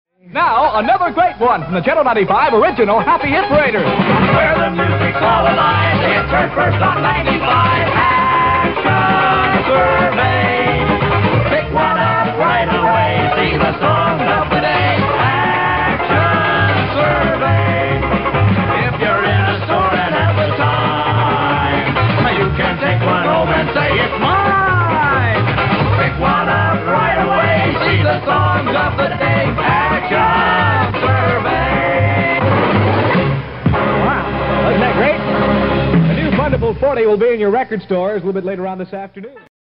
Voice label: promo; WLOF; Funderful Forty